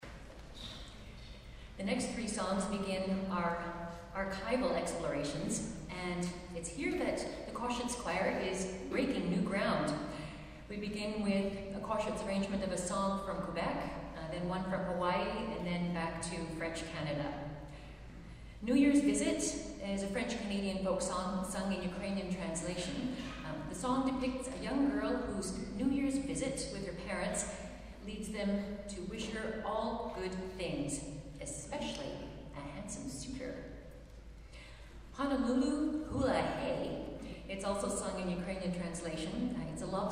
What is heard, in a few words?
narrator